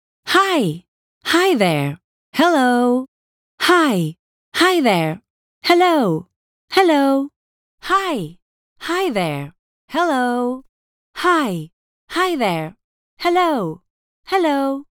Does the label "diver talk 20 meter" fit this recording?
No